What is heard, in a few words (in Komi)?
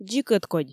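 "дзик ӧткодь", Komi, female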